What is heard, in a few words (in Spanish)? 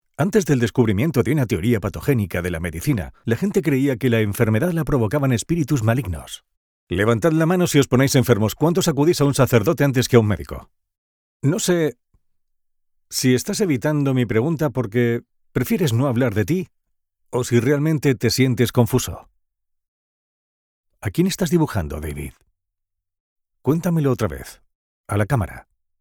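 otros España; Género: Masculino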